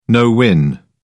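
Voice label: "no-win", British English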